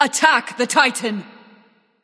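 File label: vox attack male; smite; artio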